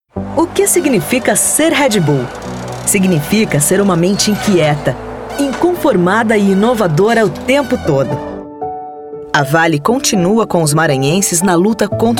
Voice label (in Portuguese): INSTITUCIONAL